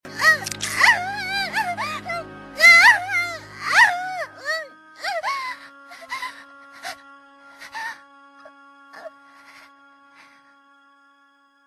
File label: anime girl; ringtones